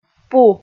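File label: "пу", Komi, female; kpv audio dict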